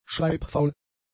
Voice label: "schreibfaul", German; adjectives